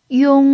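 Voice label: "yong1", Mandarin Chinese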